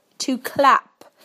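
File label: "to clap", British English; PictureDictionary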